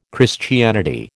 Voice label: "Christianity", American